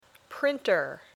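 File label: "printer", English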